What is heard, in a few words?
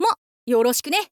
thief boy greeting